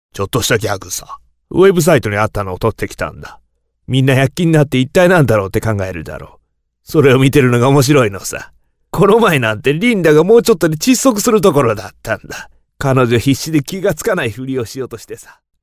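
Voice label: Japanese, male, character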